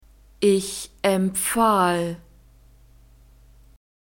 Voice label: "ich empfahl", German; verbs past tense irregular